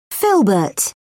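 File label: "filbert", English, British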